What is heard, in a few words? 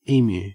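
"emu", Australian English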